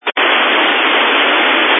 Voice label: Family Radio Service (FRS)